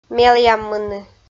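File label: "мелъяммыны", Komi, female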